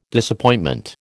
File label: "Disappointment", American